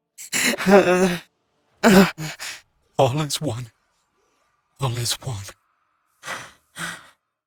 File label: Ceremony End Mumbling; Narmer Outpost; Chamber Ceremony End; Ostron